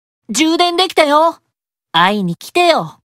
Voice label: Notification Voice; Asmodeus